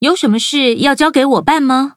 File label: level up, zh-hant